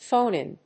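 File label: "phone-in", English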